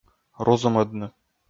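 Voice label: "рузумӧдны", Komi, male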